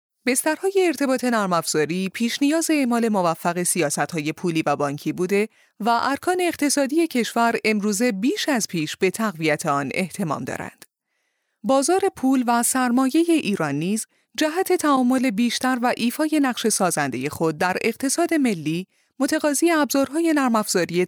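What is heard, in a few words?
Farsi, female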